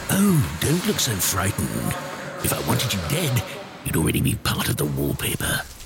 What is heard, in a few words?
Characters Voices Menacing Character